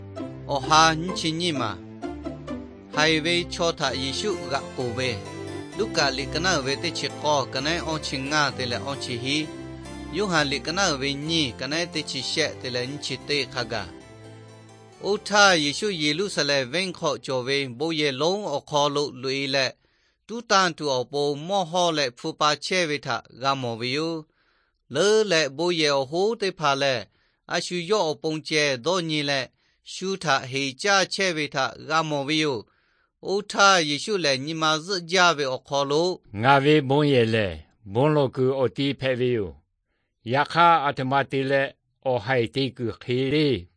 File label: victory through god lahu na, nt drama